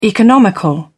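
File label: "economical", British English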